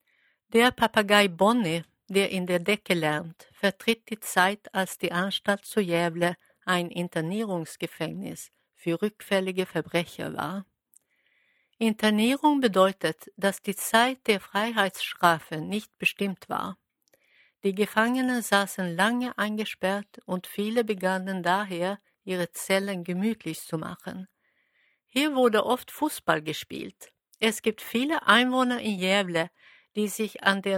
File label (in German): cell, audioguider